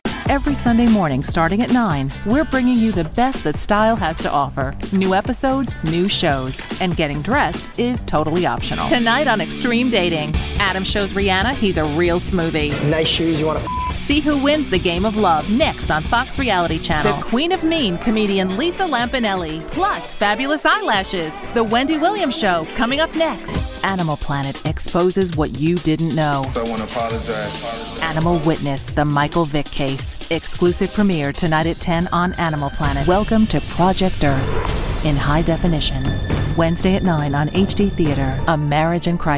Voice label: Promo